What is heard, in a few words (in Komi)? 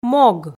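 "мог", Komi, female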